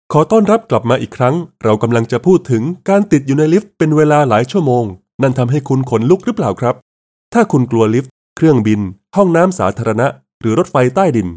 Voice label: thai podcasts

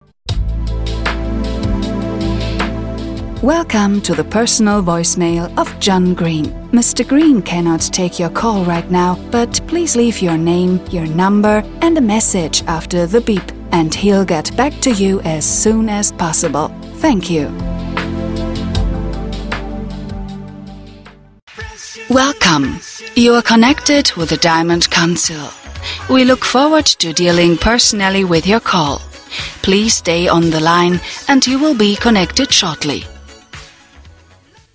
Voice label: english commercial

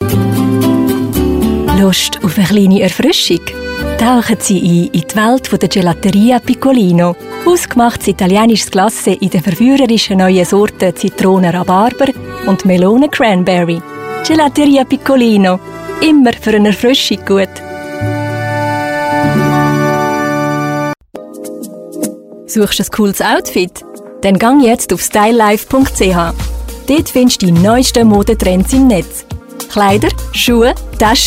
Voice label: german switzerland commercial

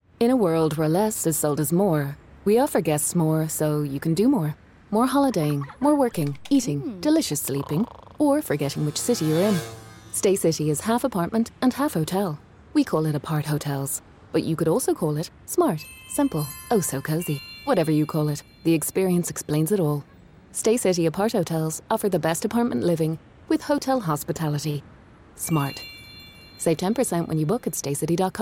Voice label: english irish; dubladora especialista e multilíngue

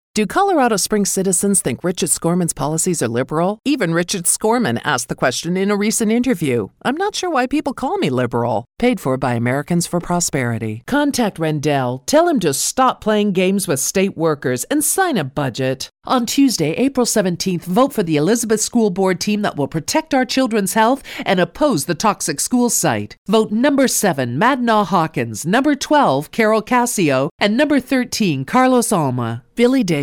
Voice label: english canadian political ads

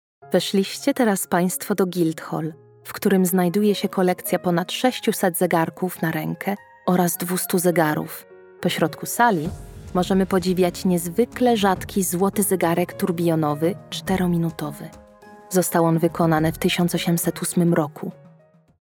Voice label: polish audio guides

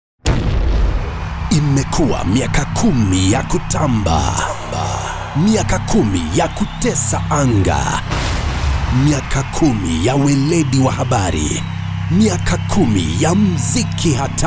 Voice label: swahili promos

